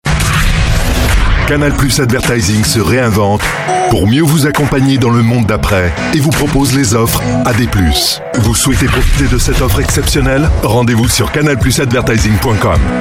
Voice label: French Voice